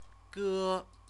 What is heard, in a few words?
"ge", Chinese